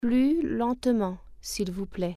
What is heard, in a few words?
slower please, french